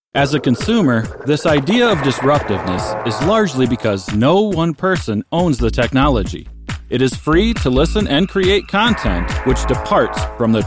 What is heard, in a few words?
USA